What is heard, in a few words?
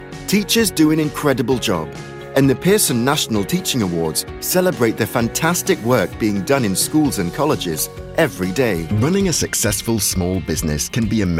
corporate reel